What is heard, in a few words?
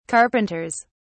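"carpenters", English, British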